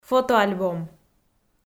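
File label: "фотоальбом", Komi, female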